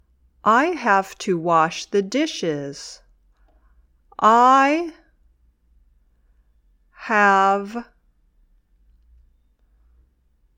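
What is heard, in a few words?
"dictation", English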